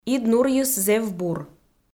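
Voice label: "ид нурйыс зэв бур", Komi-Zyrian, female; audio dict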